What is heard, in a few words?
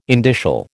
"indicial", American